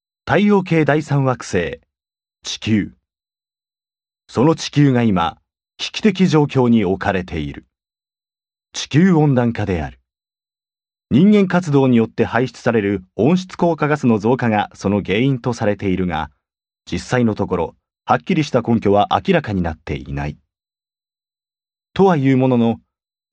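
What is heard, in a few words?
Japanese, male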